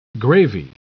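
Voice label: "gravy", English; QFreeRecite; TTS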